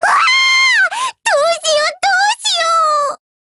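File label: BREAK Japanese, Voice; GF Alarm; BrenTen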